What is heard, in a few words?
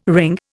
"wring", Indian